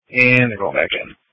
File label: Police Tactical Encrypted